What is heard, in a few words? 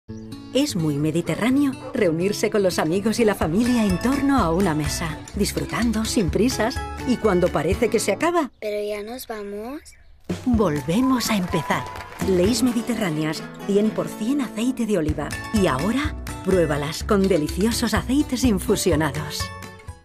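comercial, Spanish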